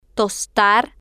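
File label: "tostar", Spanish, female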